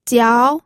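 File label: "jiao2", Mandarin Chinese second tone; pinyin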